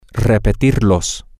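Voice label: repetirlas M, Spanish, male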